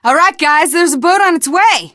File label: radio used boat, teen girl; voice; source engine; left 4 dead 2; survivor